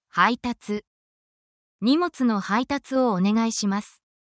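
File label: Japanese word, essential Japanese phrases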